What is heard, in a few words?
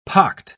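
"pocked", British English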